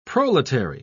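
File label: "proletary", British English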